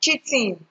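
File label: "cheating", Nigerian Pidgin, female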